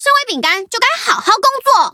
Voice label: loading, zh-hant; Cookie Run